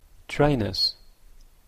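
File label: "trainers", Canadian English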